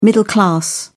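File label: "middle-class", British English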